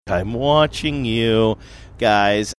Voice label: saying im watching you guys sing songy

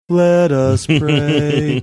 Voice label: priestly sing songy

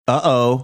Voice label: uh oh

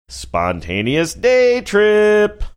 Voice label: saying spontaneous day trip sing songy

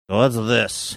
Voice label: whats this gruff

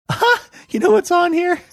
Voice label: high pitched voice

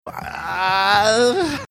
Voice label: hesitation sound

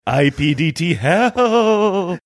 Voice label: saying ipdthell spooky manner

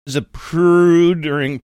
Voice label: saying zaprudering funny

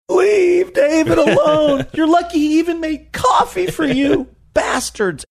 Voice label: saying funny; leave david alone

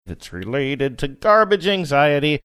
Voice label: saying its related to garbage anxiety sing songy

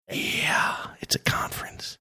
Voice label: quietly saying yeah its a conference

